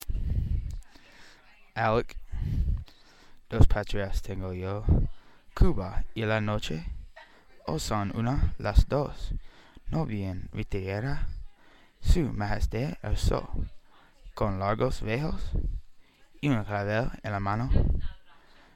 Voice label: Spanish talking quiz